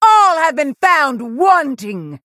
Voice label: Female Human Condemn

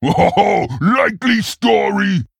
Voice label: Male Ogre Attack

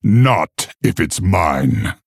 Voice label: Male Orc; Hero

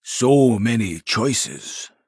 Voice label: Thinking

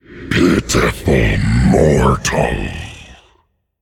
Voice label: Male Demon Play

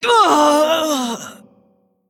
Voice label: Female Mogu Death

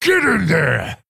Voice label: Male Orc Attack

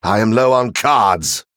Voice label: Male Venthyr Low Cards

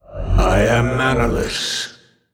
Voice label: Male Old God Emote ERROR NEED MANA

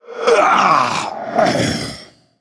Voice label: Male Undead Death

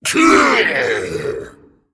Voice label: Male Dragon Death

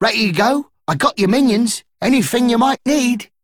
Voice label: Male Dredger

